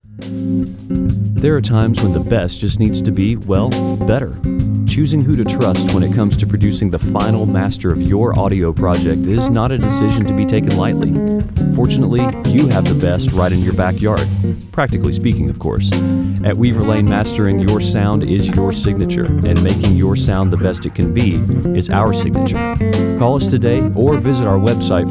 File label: Relaxed Conversational Voiceover Spot